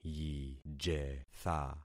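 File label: "yijetha", concatenated syllables; Affixing experiment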